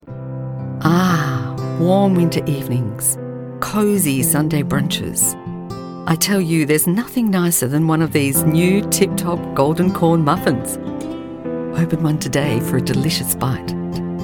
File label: Commercial